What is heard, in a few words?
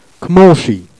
"cmenchi", Jèrriais